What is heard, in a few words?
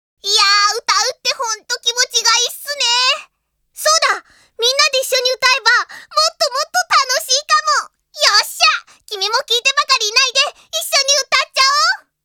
Ending Narration; Ojamajo Doremi; Ashita No Nadja